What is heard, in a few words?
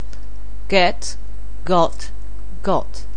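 "get", English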